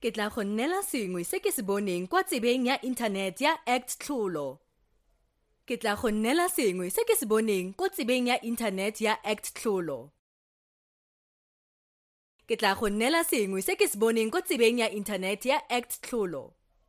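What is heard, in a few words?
TN General, Tswana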